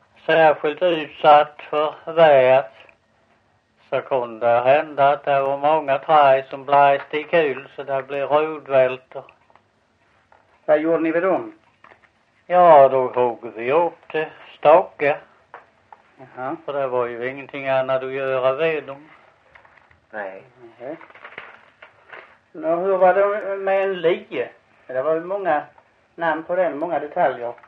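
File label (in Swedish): Visseltofta Skåne, Svenska sagor dialekter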